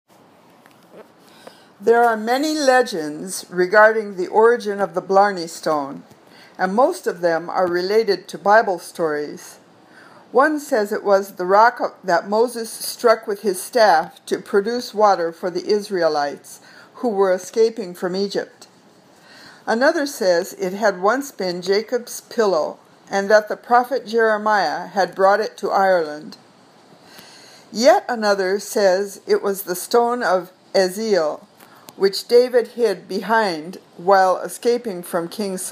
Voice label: Reading Drill